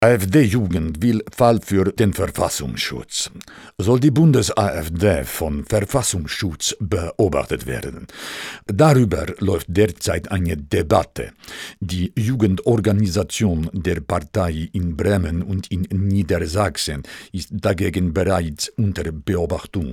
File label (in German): Russisch